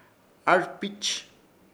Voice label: "ARPIPICH", Ishir Chamacoco